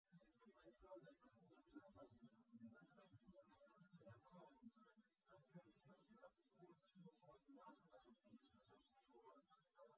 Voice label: male; SiSEC08; SiSEC underdetermined